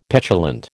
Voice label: "petulant", American

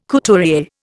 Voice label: "couturier", Indian

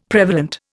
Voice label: "prevalent", Indian; Dictionary

